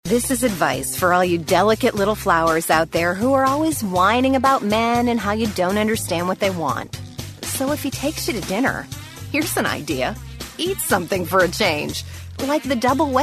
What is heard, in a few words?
Commercial Attitude